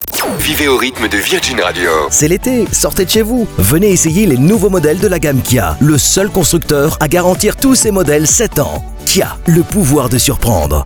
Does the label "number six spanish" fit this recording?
No